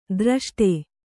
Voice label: "ಧೃಷ್ಟೆ", Kannada